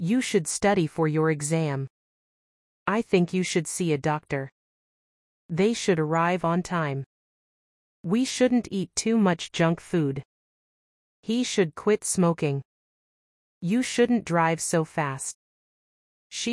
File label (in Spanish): gramatica should not ingles; verbos modales should y shouldnt